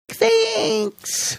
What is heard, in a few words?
squeaky thanks